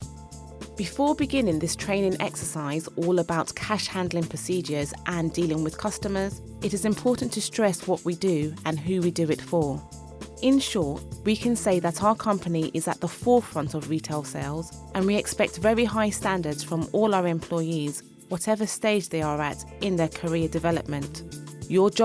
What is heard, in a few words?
e-Learning